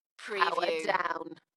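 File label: UK female, DJ vocals; Dalekness; beanstalk-audio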